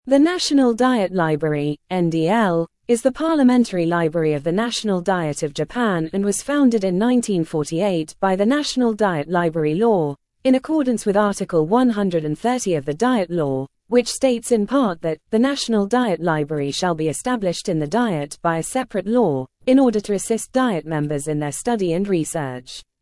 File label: text2speech converter sample; gcloud